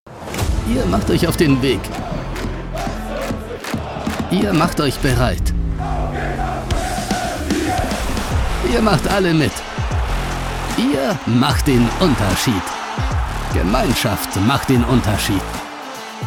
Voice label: werbung; wwk; Muttersprache Deutsch

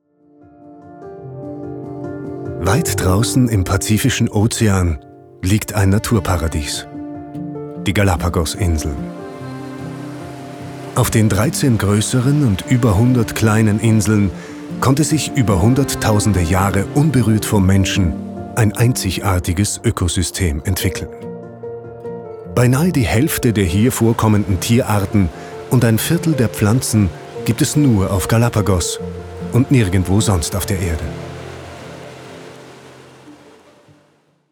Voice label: Hörprobe Dokumentation

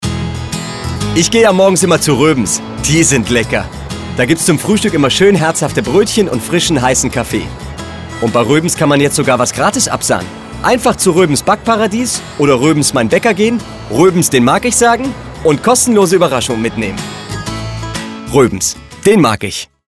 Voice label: werbung off sprecher gespielt

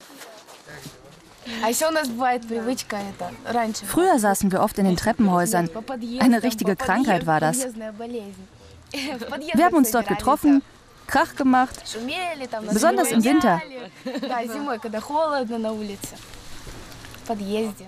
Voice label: vo jugendlich

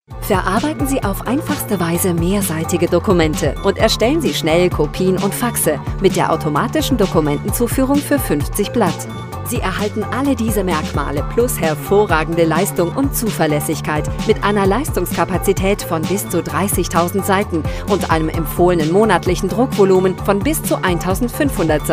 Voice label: produktfilm praesent informativ; hp officejet pro e all one 8620

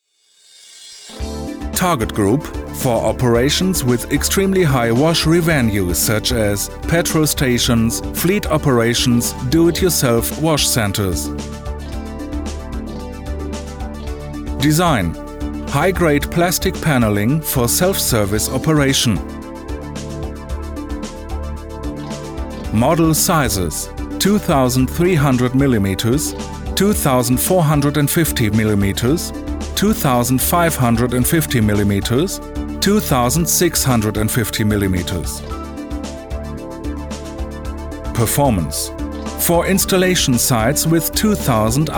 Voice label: englisch; Muttersprache Deutsch

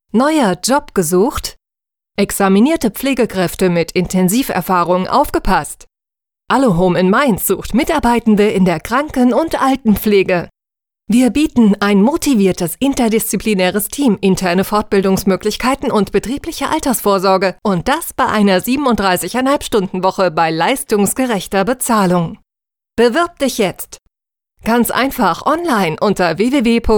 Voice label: Stellenspot Pflegeheim

